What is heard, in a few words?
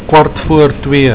"kwart voor twee", Afrikaans